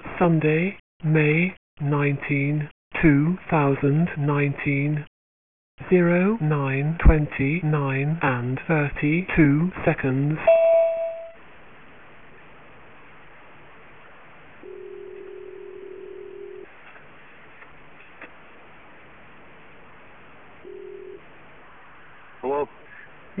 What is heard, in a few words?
911 call; Neuse Rd; RALEIGH